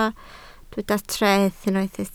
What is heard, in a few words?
"treth", Welsh